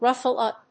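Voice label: "ruffle up", English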